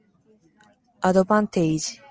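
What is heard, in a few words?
"advantage", Japanese, female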